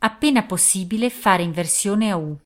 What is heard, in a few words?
Italian, female